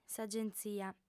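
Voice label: "s'agentzia", Sardinian female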